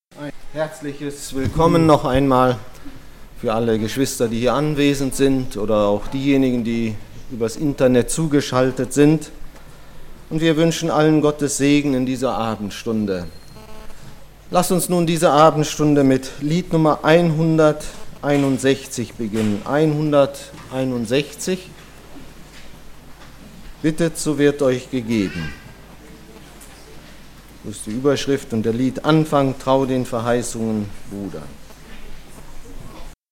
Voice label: Ansage; Lagerversammlung 2017; Gebet

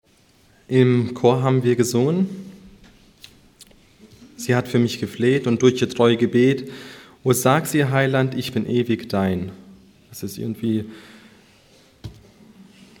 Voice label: Ansage

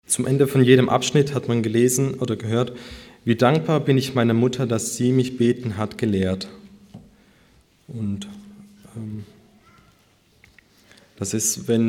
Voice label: Ansage

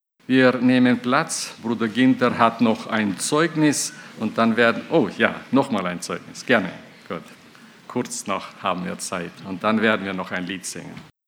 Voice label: Ansage; Lagerversammlung 2018; Dem Herrn zuerst

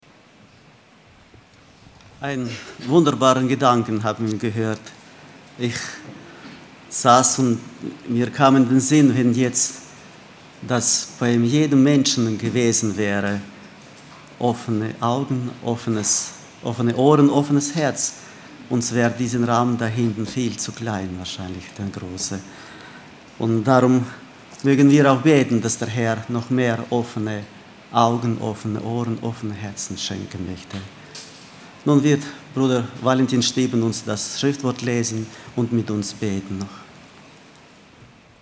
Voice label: Ansprache; Gefahren dieser Zeit für das Volk Gottes

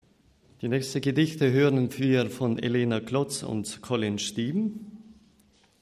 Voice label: Ansage

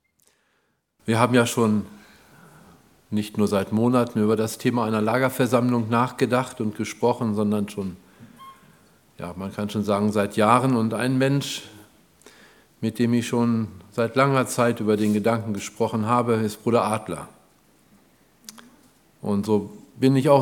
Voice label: Ansage; Kennst du Jesus als Diener

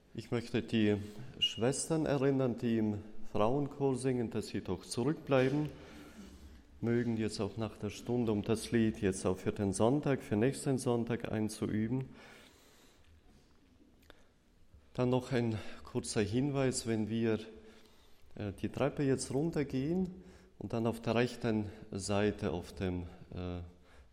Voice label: Bekanntmachung; Christliche Literatur

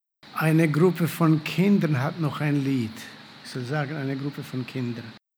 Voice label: Ansage; Lagerversammlung 2018

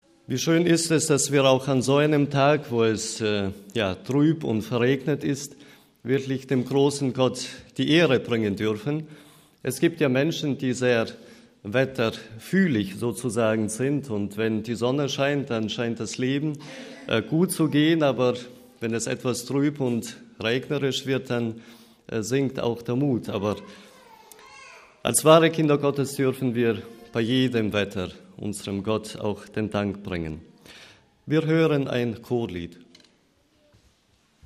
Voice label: Ansage

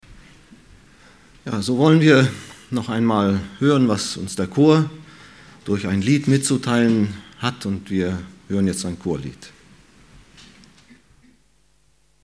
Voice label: Ansprache; Ein Bild eines Missionaren

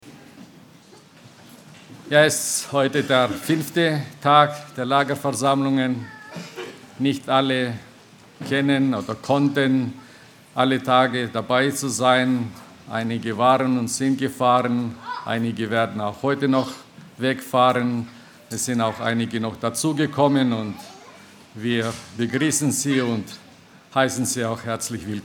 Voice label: Ansprache; Der Herr sieht das Herz

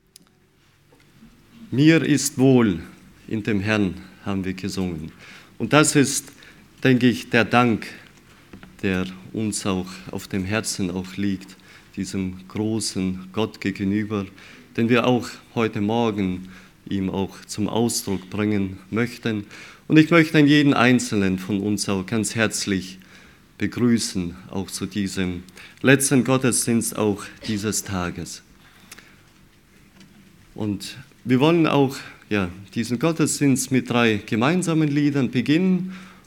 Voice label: Ansage; Dankgottesdienst

